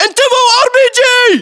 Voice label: threat rpg, battlechatter; cod modern warfare 2